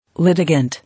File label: "Litigant", English